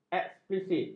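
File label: "eksplisit", Malay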